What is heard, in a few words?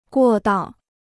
"过道", Chinese